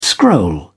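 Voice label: "scroll", British English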